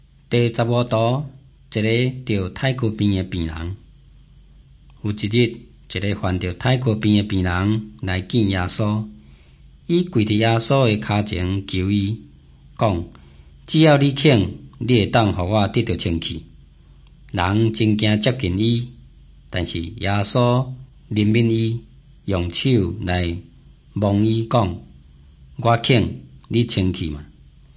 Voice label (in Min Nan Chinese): taiwanese, drama; hokkien; taiwanese min nan; jesus teacher and healer